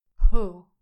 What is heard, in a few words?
"pull", english pronunciation